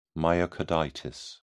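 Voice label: "myocarditis", British English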